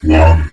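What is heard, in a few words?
"one", spoken word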